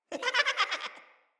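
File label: Idle Corrupted Sprouts, en; Dialog; Battle Barks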